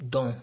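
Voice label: "done", Nigerian Pidgin, male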